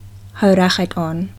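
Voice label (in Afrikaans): "hou reguit", Afrikaans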